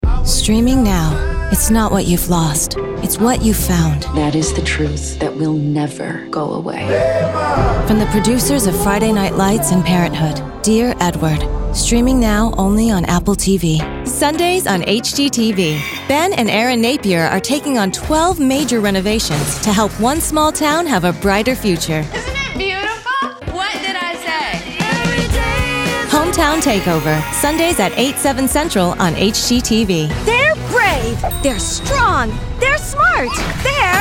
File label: NETWORK PROMO